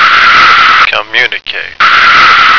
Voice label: "communicate", American

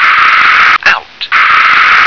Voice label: "out", English

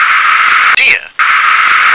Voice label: "deer", English

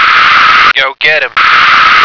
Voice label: "go get", American

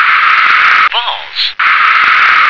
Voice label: "vase", English

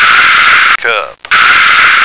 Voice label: "cup", American

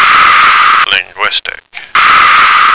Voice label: "linguist", American

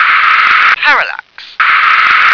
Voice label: "parallax", English

